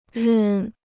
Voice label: "任", Chinese

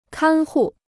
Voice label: "看护", Chinese

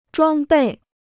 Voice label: "装备", Chinese

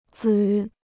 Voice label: "子", Chinese

